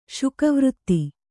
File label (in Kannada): "ಶುಕವೃತ್ತಿ", Kannada